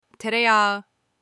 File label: butter in turkish